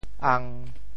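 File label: "ang1", Teochew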